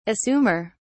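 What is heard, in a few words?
"assumer", British English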